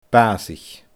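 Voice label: "baasaich", Scottish Gaelic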